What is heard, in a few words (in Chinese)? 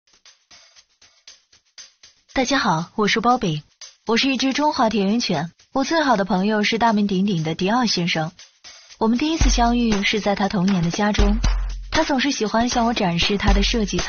女